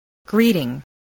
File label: "greeting", spelling